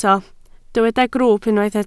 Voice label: "grwwp", Welsh